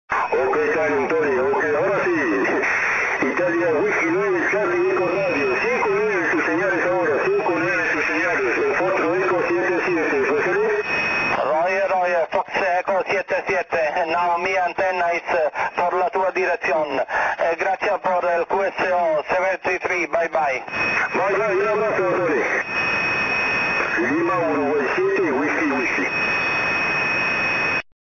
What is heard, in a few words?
6m ssb